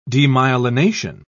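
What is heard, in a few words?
"demyelination", British English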